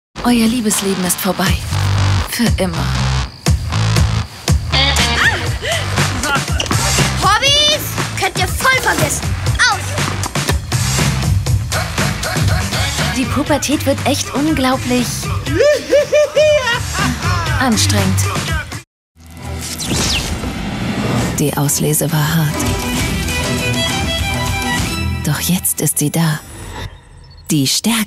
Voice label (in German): Werbung taff